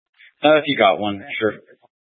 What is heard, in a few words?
Police Department Dispatch, scanner